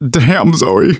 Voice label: grief teen girl, manager, survivor, voice

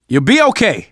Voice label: revive friend, survivor voice manager; Left 4 Dead 2; left4dead2 dlc3

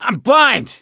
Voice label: boomer reaction, voice; gambler

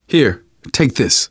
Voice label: alert give item, manager, survivor, voice; Left 4 Dead 2; left4dead2 dlc2

